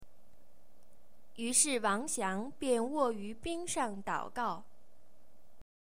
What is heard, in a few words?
Mandarin Chinese